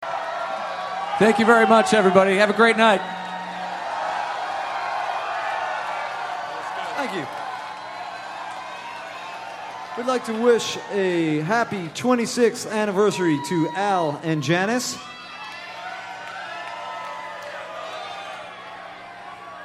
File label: announcements